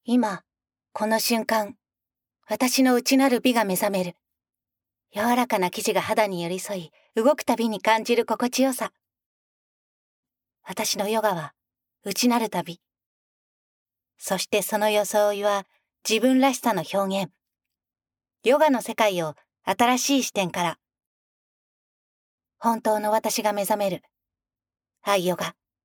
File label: female